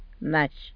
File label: "nax", tlacolula; chocolate